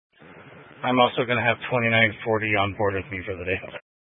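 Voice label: Sheriff Dispatch, Scanner audio recording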